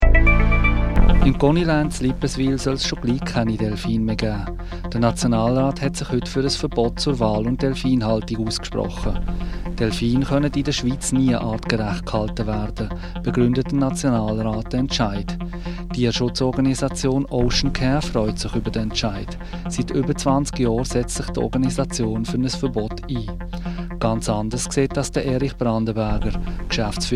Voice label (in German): News; Connyland